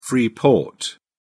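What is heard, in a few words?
"free port", British English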